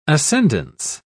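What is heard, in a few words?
"ascendence", British English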